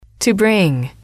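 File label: "to bring", English, female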